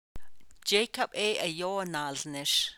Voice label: navajo; Continuative Imperfective (CI)